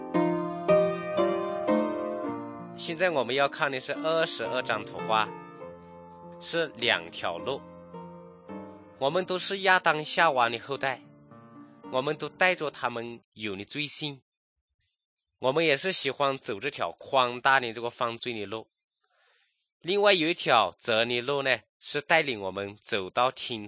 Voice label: good news shan, drama; kokant shan; thailand bible society nt